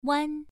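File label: "wan" Mandarin Chinese, first tone, pronunciation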